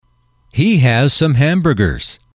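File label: "he has some hamburgers", English, male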